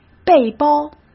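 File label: "背包", Mandarin Chinese; chinese-flashcards; hsk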